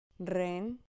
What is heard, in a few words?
"ren", Spanish syllable